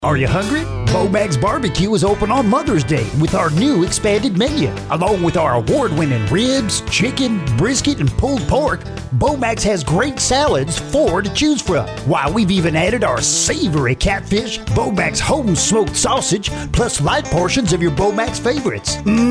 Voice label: bbq voiceover; bo mack s bbq